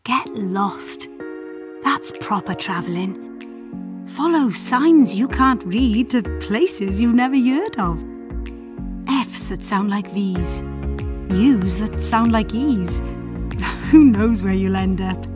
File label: Commercial